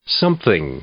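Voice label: "something", English, male; pronoun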